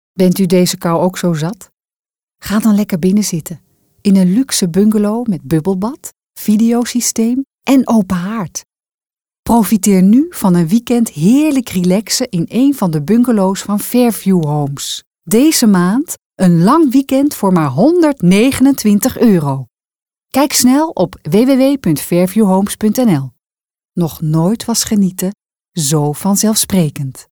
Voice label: commercial; Fairview bungalow

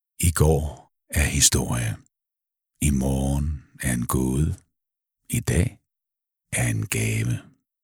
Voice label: sample dark

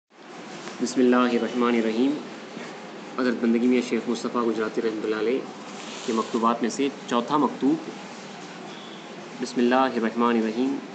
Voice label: Letter Gujrati; Fourth Letter; Hz Shaik Mustafa

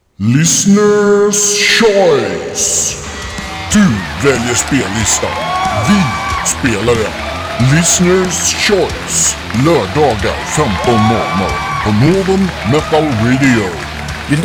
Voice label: Promojingel; Listerners Choice

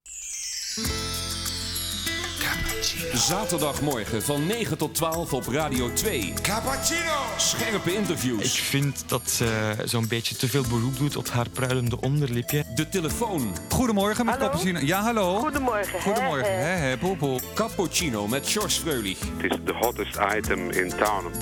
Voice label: promo

